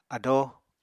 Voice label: "ado", Apatani